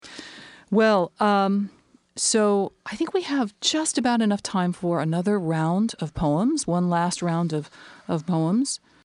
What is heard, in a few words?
Poetry; Last Round